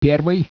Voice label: speech russian